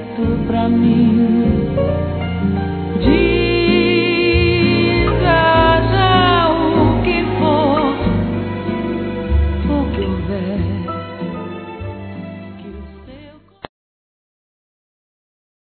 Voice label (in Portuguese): CORAÇÃO DE PAI, fem; Telemensagens 2017